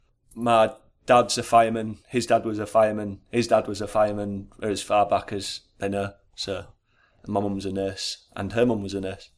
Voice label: SCARBOROUGH MALE aged 22